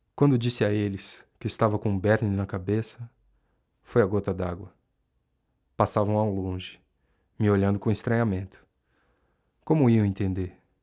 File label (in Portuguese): NARRACAO; meu tio lobisomem